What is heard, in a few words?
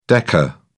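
"deca", English, British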